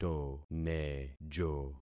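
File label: "tonejo", concatenated syllables; Affixing experiment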